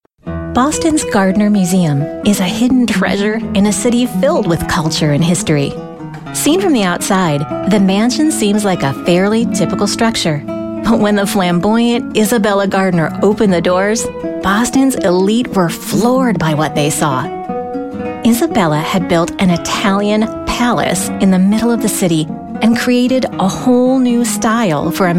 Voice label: english us and canada female narrative